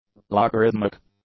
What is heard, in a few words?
"logarithmic", English; adjectives